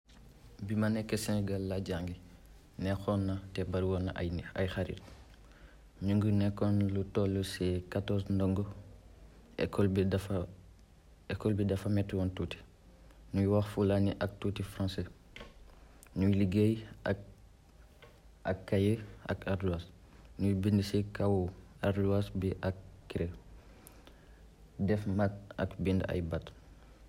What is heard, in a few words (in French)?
wolof